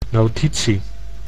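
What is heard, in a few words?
"notitie", Dutch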